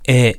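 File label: "e", Japanese; hiragana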